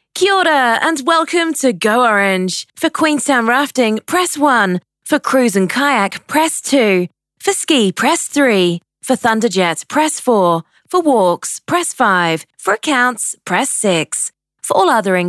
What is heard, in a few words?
UK Female Phone